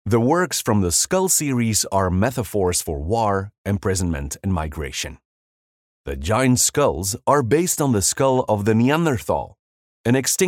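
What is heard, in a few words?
Flemish male English Elearning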